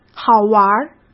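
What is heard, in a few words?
"好玩儿", Mandarin Chinese; hsk; chinese-flashcards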